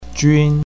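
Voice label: "jun1", Mandarin Chinese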